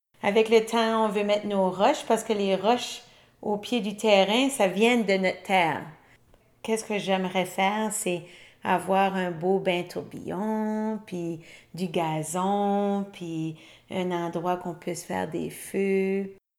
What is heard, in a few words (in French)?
Extrait acadien